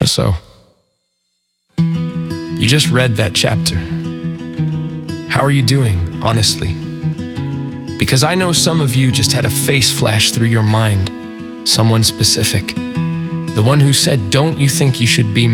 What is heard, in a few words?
Male; chapter 13 audio